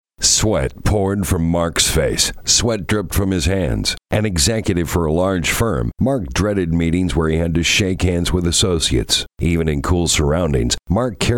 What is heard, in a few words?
Radio AD